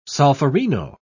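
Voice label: "solferino", English, British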